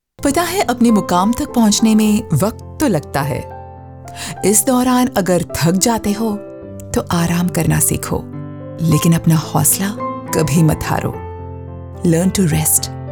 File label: Hindi Narration